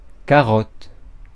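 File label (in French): "carotte", French; phonemes; Mots francais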